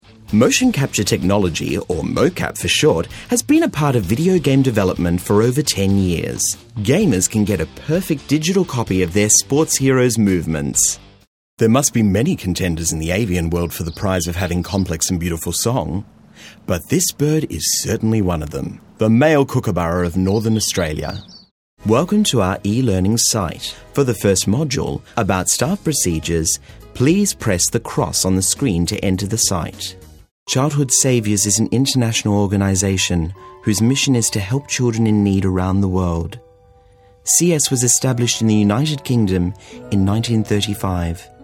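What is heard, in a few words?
Australian narration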